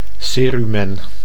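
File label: "cerumen", Dutch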